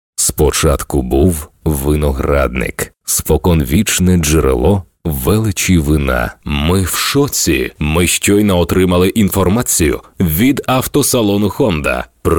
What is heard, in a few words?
locutor ucraniano ukrainian male voiceover